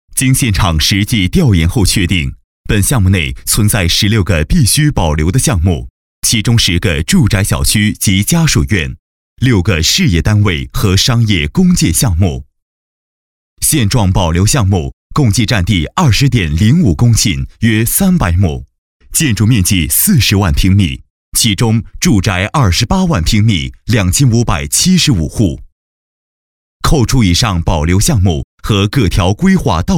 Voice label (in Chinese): 男 专题 建筑多媒体